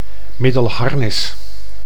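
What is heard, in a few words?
"Middelharnis", Dutch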